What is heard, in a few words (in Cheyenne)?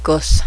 sheep, Cheyenne; kōsa; goat/sheep